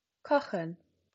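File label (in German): "kochen", German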